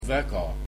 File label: very, estonian